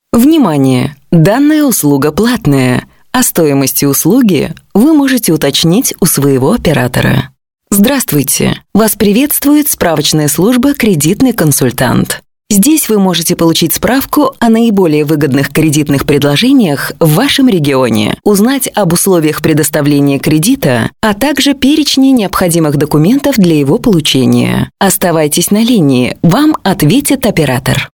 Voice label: ivr